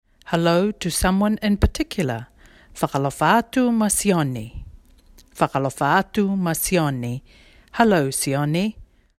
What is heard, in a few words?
Niue hello to a specific person; 2022 Pacific Language Weeks